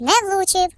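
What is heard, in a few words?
"Missed", British English; Default uk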